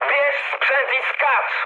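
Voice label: bailout, mood high, Polish speech; War Thunder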